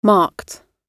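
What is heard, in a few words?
"marked", British English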